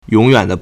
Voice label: "yong3 yuan3", Chinese

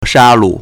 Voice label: "sha1lu4", Chinese

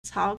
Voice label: "cao3", Chinese

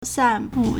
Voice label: "san4bu4", Chinese; 散布 (散佈) sànbù; verb; to spread; to scatter; to diffuse; to sprinkle; to disseminate

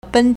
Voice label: "ben1", Chinese

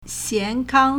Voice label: "xian2kang1", Chinese

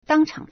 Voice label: "dang1 chang3", Chinese

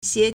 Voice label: "xie2", Chinese

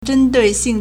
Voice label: "zhen1dui4xing4", Chinese

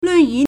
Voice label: "lun2yu3", Chinese

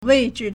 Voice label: "wei4zhi4", Chinese

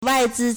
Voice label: "wai4zi1", Chinese

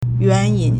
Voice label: "yuan2yin3", Chinese

